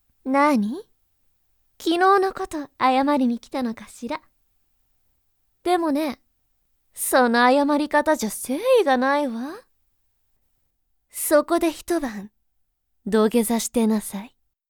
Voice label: girl

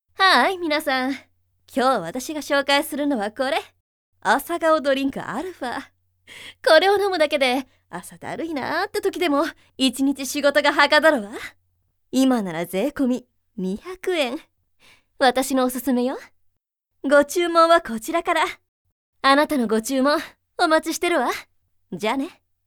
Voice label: woman hukikae

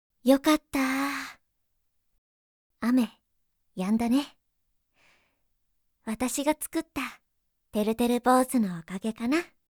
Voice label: girl ameagari

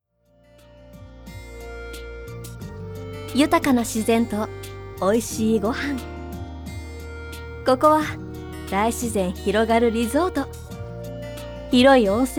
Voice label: woman ryokan cm bgm

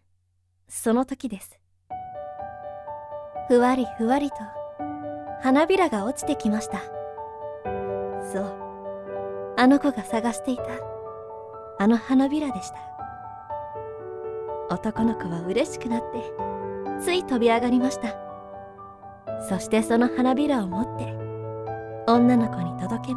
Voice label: girl yomikikase bgm

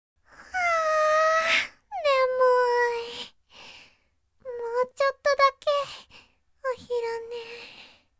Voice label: girl nemui

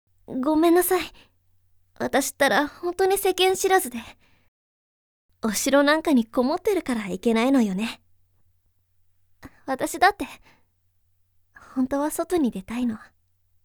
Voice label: girl ohimesama

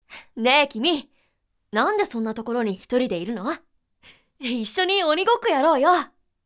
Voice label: boy onigokko

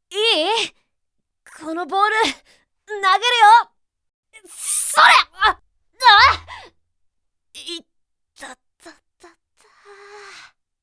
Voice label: boy

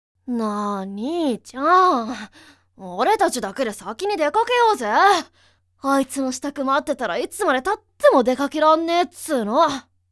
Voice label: boy wagamama

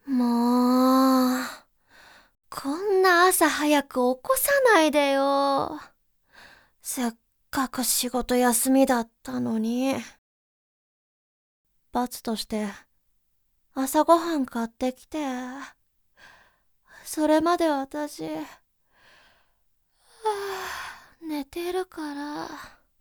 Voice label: girl okitate

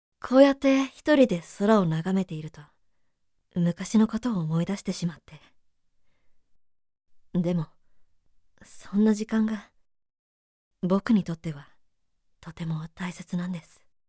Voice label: boy seinen

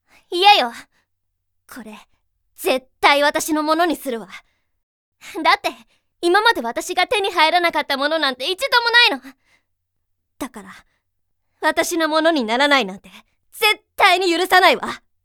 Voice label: girl wagamama

